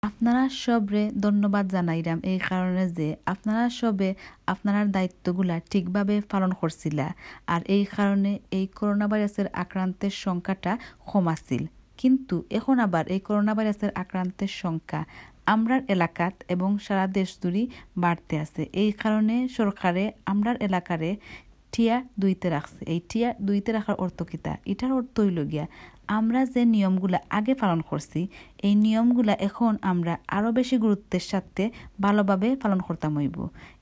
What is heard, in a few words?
sylheti bangla